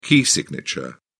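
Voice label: "key signature", British English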